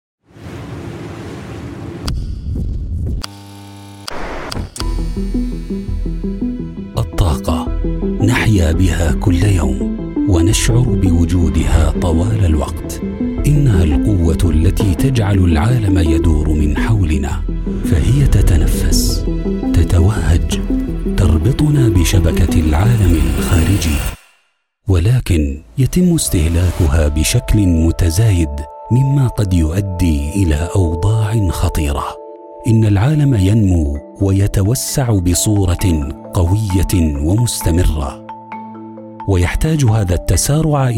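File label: Arabic, Explainer Video; GoLocalise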